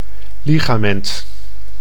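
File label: "ligament", Dutch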